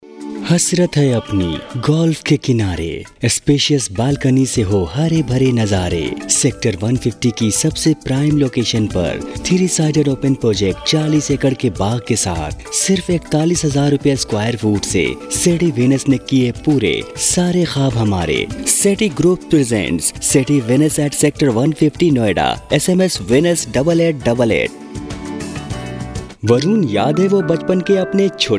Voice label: commercials Hindi